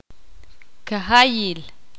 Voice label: ixil; todos